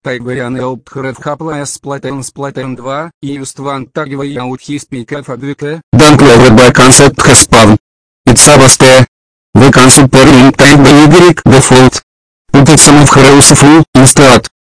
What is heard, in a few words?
loquendo yelling rant